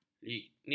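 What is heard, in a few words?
"lignit", Malay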